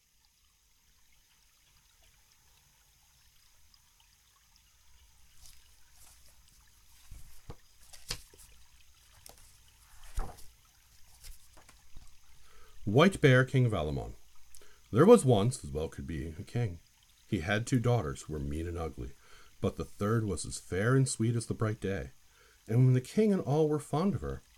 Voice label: Norwegian Folk Tales, story, book, reading, with page turning included, plus forest; creek; ambience